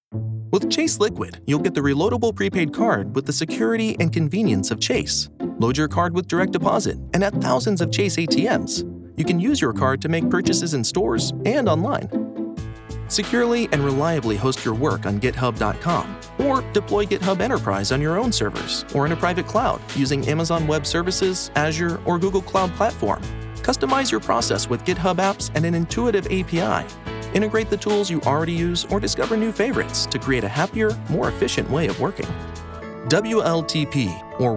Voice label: Corporate Industrial